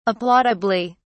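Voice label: "applaudably", British English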